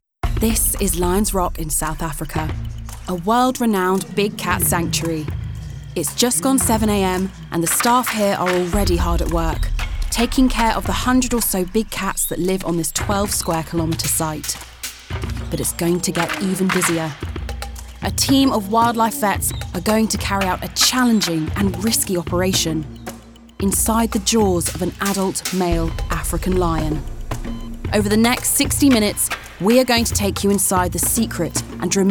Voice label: Documentary